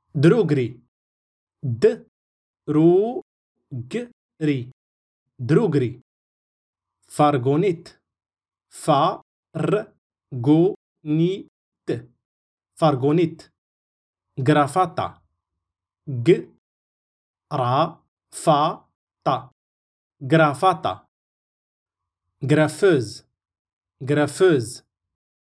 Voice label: french language vocabs in moroccan arabic darija